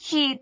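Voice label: "hit3", Cantonese; microsoftHiuMaan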